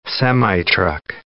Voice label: "semitruck", English